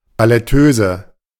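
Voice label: "Balletteuse", German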